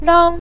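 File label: "rang", Mandarin Chinese, neutral tone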